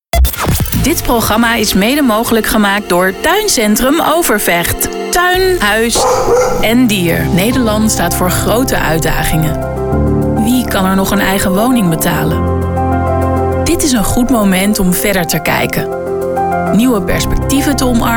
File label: VO, female voices